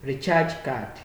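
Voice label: "recharge card", Nigerian Pidgin, male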